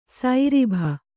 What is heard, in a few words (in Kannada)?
"ಸೈರಿಭ", Kannada